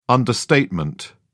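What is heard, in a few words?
"understatement", English, British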